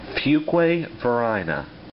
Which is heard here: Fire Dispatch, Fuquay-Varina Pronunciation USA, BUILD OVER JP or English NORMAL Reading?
Fuquay-Varina Pronunciation USA